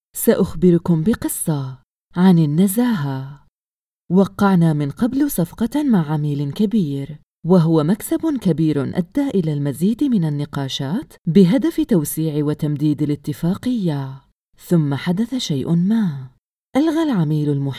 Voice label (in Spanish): corporativo